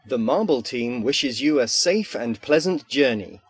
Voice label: British English, speakers; marble